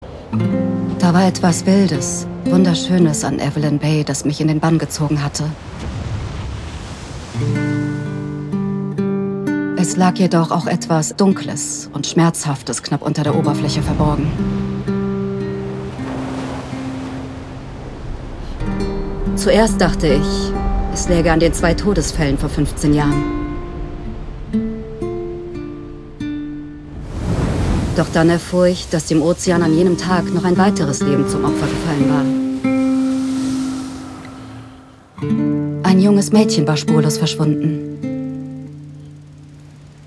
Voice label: Voiceover, Lip-Sync (Synchron), Tale (Erzählung), German; Voiceover Rolle; The Survivors – Der Sturm; Bronte